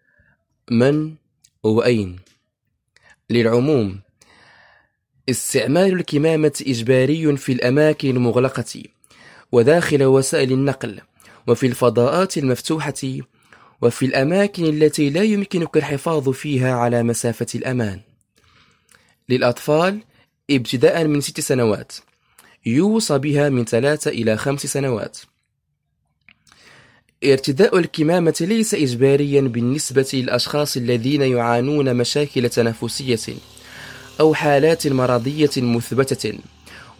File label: arab; uso de mascarillas; Tejiendo Redes